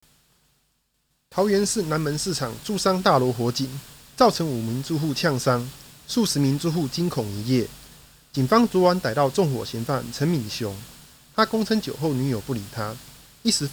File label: news, chinese